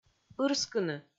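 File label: "ырскыны", Komi, female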